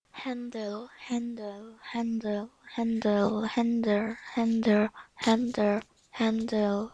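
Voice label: "handle", spoken word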